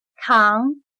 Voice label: "扛", Chino

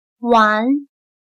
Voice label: "玩", Chino

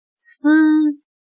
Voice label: "思", Chino

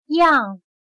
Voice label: "样", Chino

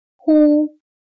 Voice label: "忽", Chino

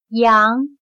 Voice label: "羊", Chino

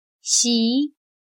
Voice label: "席", Chino

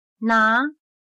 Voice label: "拿", Chino

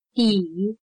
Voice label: "底", Chino

